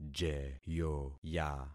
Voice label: "jeyoya", concat syllables; Affixing experiment